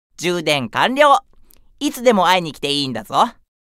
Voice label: Notification Voice; Luke